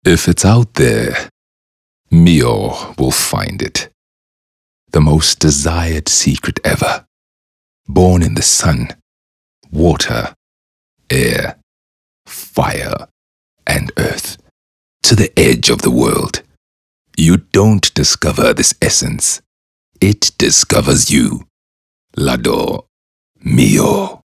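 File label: English Intimate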